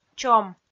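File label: "чом", Komi-Zyrian, female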